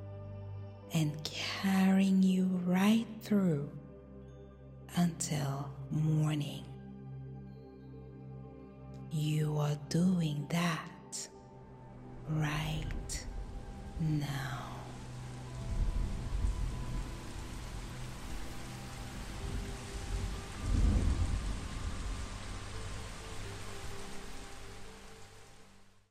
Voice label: Sample Fall Asleep Effortlessly Hypnosis for Deep Sleep